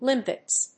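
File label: "Limpets", English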